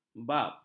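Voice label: "bab", Malay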